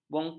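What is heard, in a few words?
"bongkak", Malay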